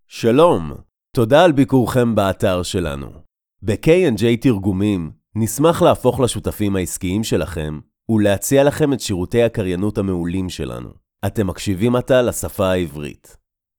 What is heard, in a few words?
hebrew male